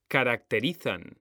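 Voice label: "caracterizan", Spanish, male; mekanta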